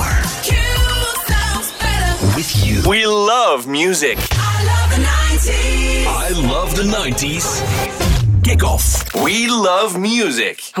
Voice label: english international radio imaging; Global English voice talent; flemish voice actors

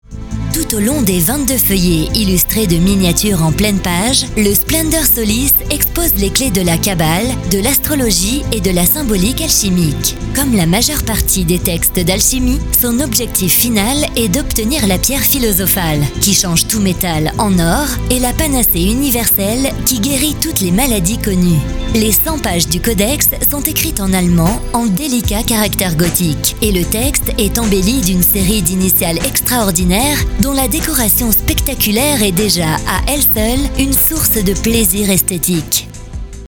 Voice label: french audiobooks

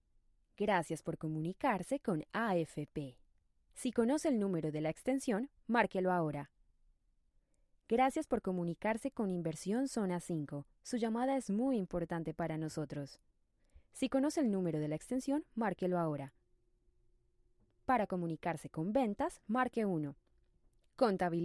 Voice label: spanish colombian ivr; Voz versátil y expresiva, hablante nativa de español

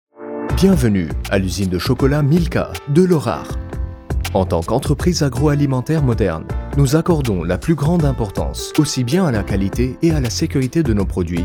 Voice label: french corporate videos

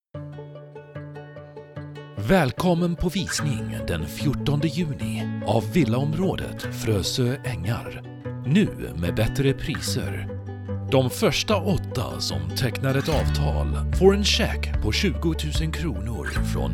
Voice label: Swedish corporate videos

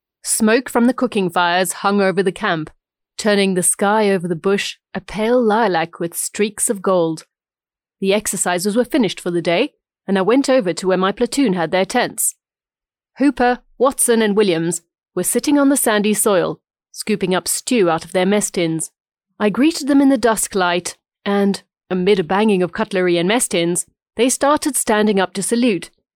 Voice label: english british audiobooks

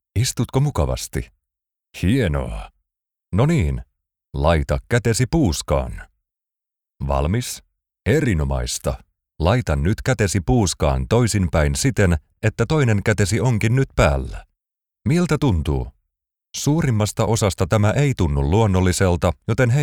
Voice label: finnish narration

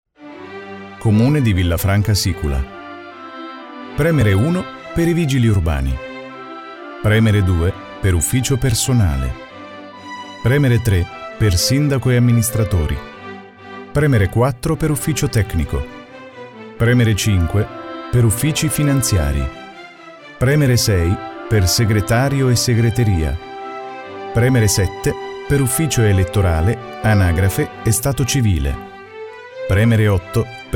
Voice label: italian ivr, voice actor

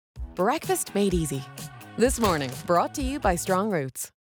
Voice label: english british promos

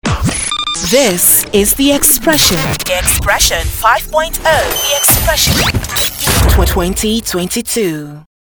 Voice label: english african radio imaging

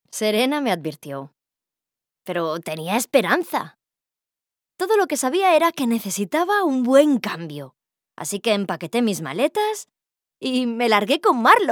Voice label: spanish video games

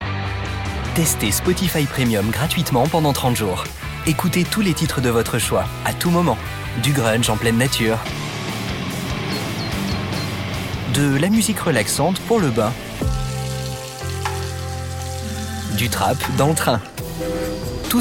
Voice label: French promos

